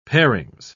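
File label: "parings", British English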